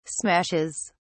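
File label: "smashes", English, British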